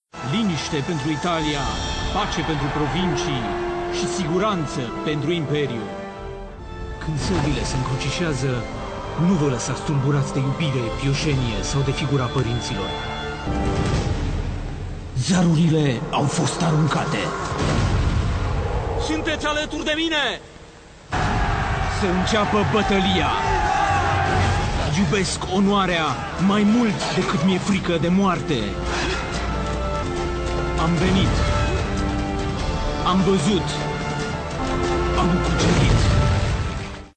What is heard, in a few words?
Romanian M Character